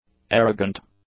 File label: "arrogant", English; adjectives